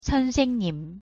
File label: "선생님", Korean; pronunciation